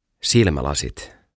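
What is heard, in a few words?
"Silmälasit", Finnish; Glasses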